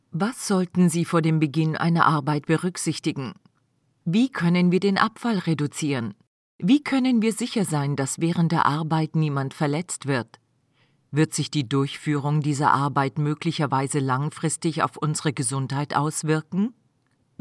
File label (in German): Audition Umwelt